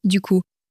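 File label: "du coup", French, female; flashcards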